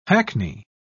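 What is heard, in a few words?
"hackney", British English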